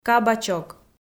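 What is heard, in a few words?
"кабачок", Komi, female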